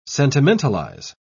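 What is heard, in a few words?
"sentimentalize", British English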